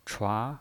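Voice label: "chua", Mandarin Chinese pinyin voice, second tone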